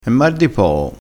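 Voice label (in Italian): "mar di poo", dialettale crespinese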